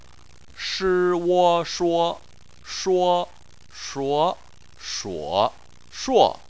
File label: "shuo", chinese